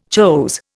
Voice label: "chose", Indian